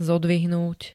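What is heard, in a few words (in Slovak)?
"zodvihnúť", Slovak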